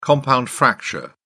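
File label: "compound fracture", English, British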